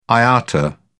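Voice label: "iata", British English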